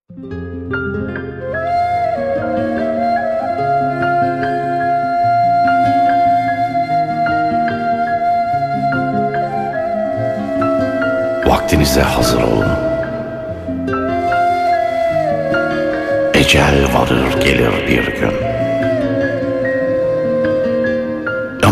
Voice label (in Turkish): sesli siir; anadolu erenleri; yunus; birgun